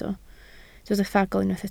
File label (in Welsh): "ffagl", Welsh